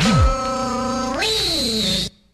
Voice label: woo wee, alien; ooh ahh